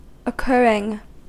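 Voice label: "occurring", US English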